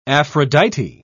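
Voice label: "aphrodite", British English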